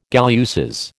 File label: "galluses", American